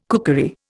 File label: "cookery", Indian